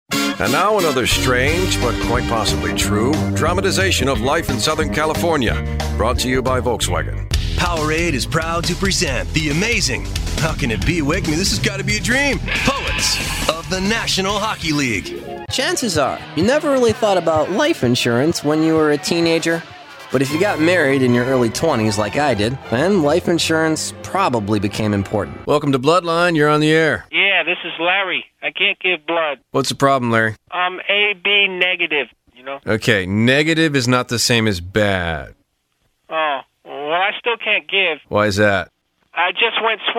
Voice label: Commercial; Moore Talent 2007